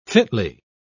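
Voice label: "fitly", British English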